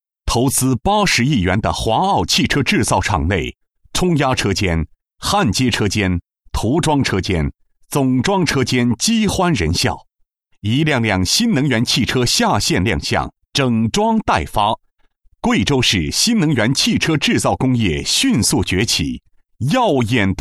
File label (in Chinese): 男 宣传片 产业园新能源汽车